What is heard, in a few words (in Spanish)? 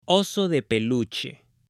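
"oso de peluche", Spanish, male; JUGUETES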